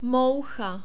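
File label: "moucha", Czech, male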